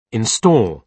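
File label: "install", British English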